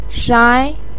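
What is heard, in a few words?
"shai", Mandarin Chinese, second tone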